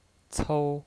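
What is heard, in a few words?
"cou", Mandarin Chinese, first tone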